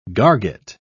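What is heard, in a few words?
"garget", British English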